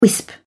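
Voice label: "wisp", English, British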